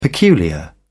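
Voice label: "peculiar", English, British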